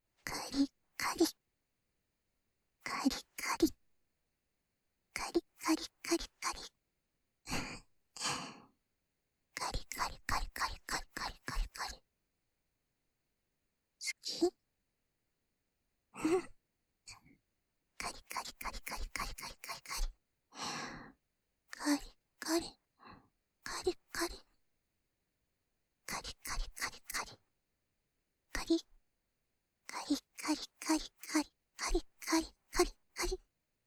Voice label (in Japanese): 乳首責め（かりかり・右）（SEあり）; 乳头刺激